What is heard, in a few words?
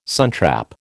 "suntrap", American